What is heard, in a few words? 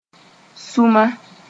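"sũma", Mooré; Bambara beans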